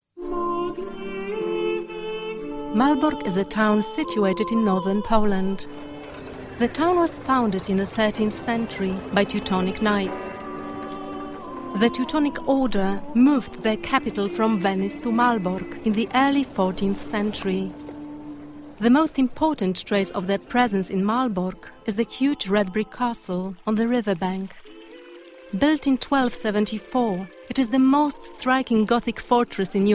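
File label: PL AccEN, Polish accented English; GoLocalise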